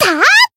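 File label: attack, Japanese; melody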